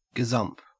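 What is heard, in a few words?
"gazump", Australian English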